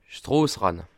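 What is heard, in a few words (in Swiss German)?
"ranne", Bas Rhin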